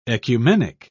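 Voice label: "ecumenic", British English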